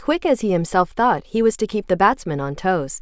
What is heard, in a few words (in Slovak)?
Hlasova synteza Emocie; Tecatron2